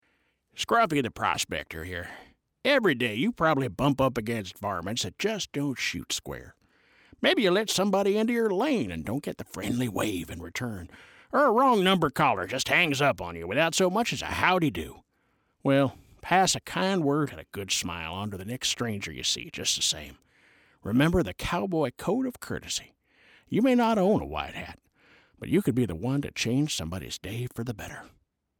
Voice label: PSA Vocal; COWBOY COURTESY PSA